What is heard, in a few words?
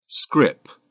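"scrip", British English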